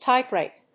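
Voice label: "typewrite", English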